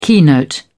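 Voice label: "keynote", British English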